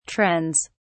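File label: "trends", British English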